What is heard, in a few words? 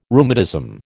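"rheumatism", American English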